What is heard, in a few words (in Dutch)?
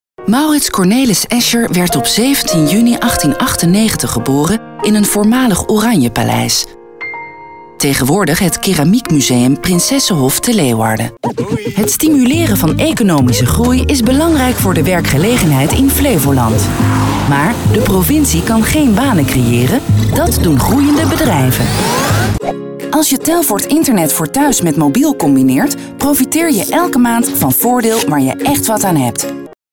corporate